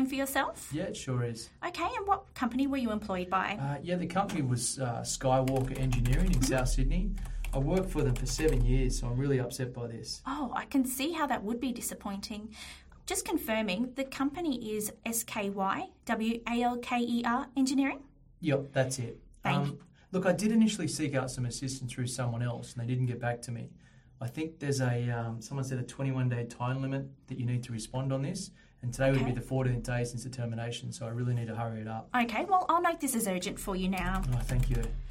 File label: lawyer answering service sample call; An answering service for lawyers and law firms